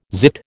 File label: "zit", American